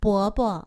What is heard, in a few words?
"bobo", Chinese; Survival Chinese